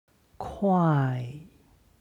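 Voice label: "kuai", Chinese; 块; 塊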